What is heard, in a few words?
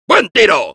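Soldier nice shot, Spanish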